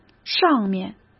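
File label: "上面", Mandarin Chinese; chinese-flashcards; hsk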